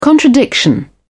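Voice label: "contradiction", British English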